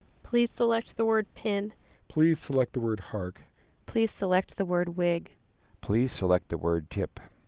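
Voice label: opus narrowband 13200 clean; Quiet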